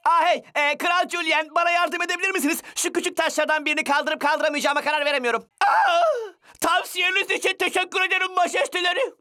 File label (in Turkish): cocuk ornek